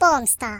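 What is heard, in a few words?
"Revenge", British English; hedgewars; Default uk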